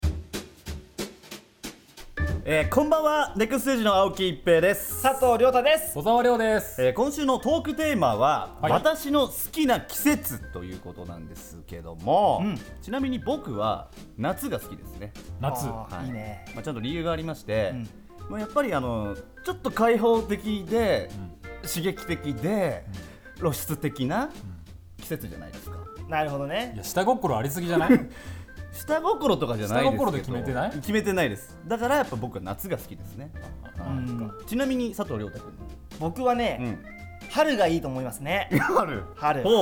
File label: voice radio